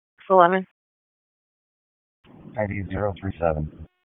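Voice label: Police Southwest District Disp, radio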